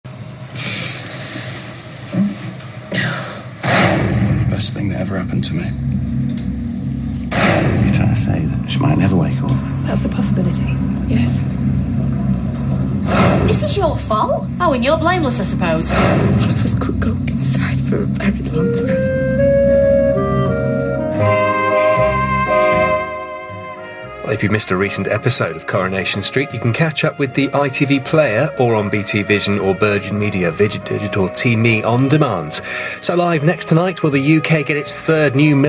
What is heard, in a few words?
tongue tied announcer